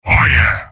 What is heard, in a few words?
soldier m positive vocalization; tf2; garrysmod; mvm